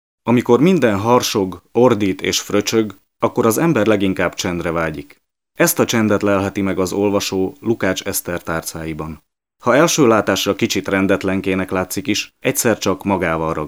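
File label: Male, Hungarian, documentary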